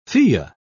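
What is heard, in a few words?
"fille", British English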